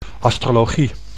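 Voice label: "astrologie", Dutch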